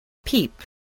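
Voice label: "peep", spoken word, spelling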